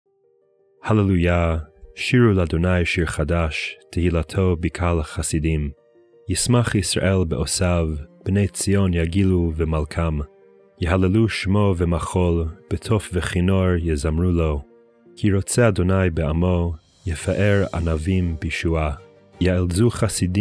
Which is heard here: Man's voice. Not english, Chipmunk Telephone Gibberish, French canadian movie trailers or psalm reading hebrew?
psalm reading hebrew